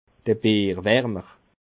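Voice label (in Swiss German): "bierwarmer", Bas Rhin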